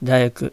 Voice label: Japanese daiaku g deleted; spontaneous speech